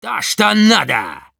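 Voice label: Demoman positive vocalization, Russian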